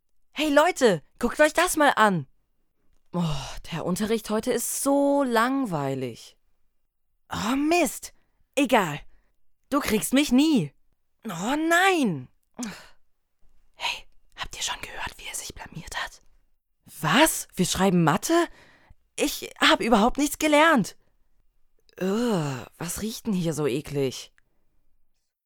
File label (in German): emotion